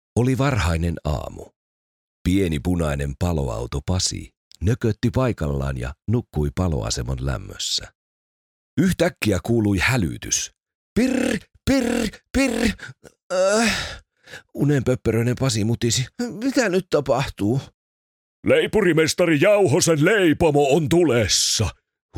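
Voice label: Finnish Audiobook Male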